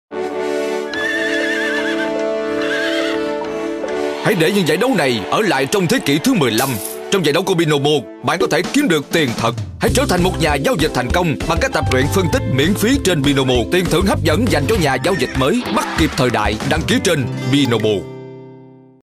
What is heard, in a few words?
Commercials